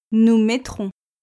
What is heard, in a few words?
"nous mettrons", French